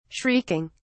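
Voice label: "shrieking", British English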